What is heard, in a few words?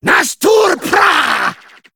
Male Sporeling Attack